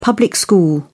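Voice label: "public school", British English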